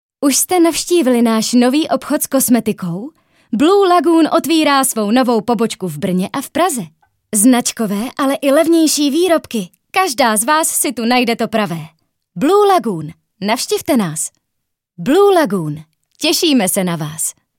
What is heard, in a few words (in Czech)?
Reklama